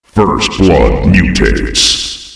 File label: first blood mutates, announcer male; Unreal Tournament; ut2004